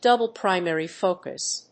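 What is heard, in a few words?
"double primary focus", English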